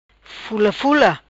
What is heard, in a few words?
"Fula Fula", Macanese